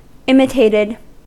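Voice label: "imitated", English, US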